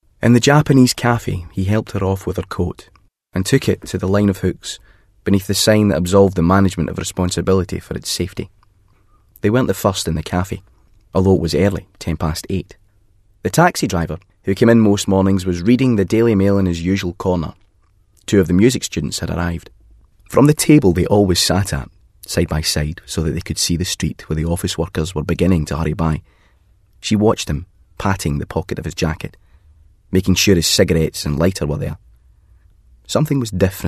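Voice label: drama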